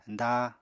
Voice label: "dáa", Apatani